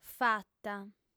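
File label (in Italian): "fata", lingua sarda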